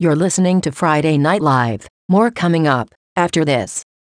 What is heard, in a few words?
friday night live break in feemail voice